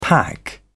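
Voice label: "pack", British English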